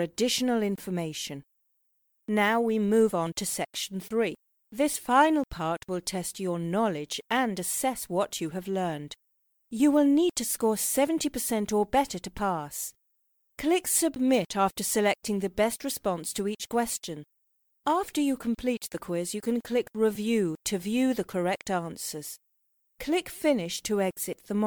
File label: Integrated Learning Podcast E-Learning Podcast; Promotional